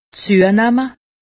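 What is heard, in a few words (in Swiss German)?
"zunamme", Alsatian; medecine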